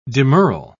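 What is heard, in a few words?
"demurral", British English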